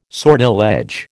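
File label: "sortilege", American English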